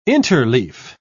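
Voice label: "interleaf", English, British English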